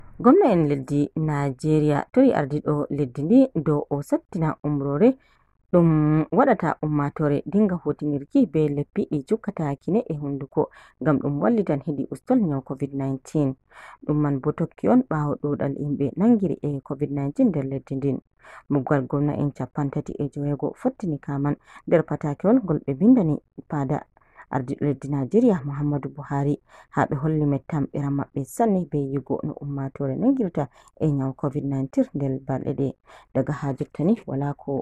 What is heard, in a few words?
Fulani